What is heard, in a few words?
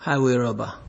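"highway robber", Nigerian Pidgin, male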